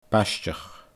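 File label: "baisteach", Scottish Gaelic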